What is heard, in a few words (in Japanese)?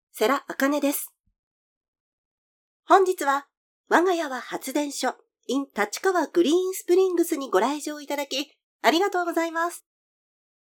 イベントMC; ナレーター｜MC｜リポーター